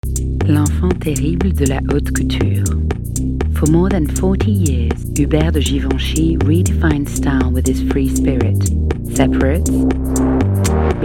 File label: ENG historique marque; Givenchy